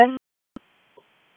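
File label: Police District Dispatch; wake p25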